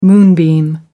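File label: "moonbeam", British English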